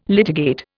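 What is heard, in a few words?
"litigate", Indian English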